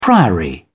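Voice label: "priory", British English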